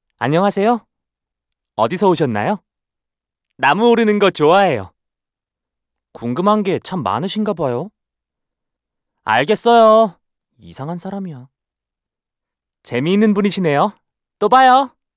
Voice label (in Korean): npc 소년 캐릭터 10대